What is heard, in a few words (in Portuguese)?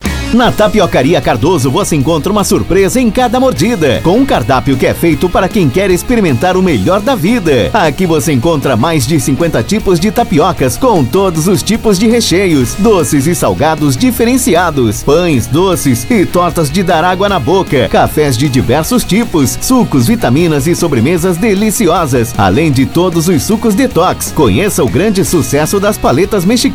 VOZ IMPACTO GRAVE vinheta spot chamada comercial propaganda; para tapiocaria; anuncio